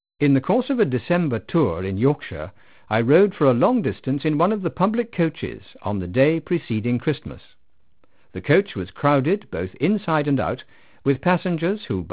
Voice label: male speech 8khz 3bit